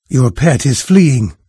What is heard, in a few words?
pet flee, NARRATOR, en-US; Fate Undiscovered Realms